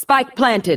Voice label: spike planted female voice; valorant